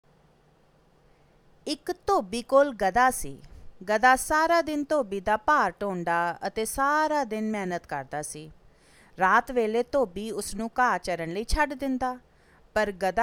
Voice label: languages accents women punjabi